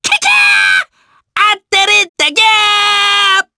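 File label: voice, Japanese; Lakrak; kingsraid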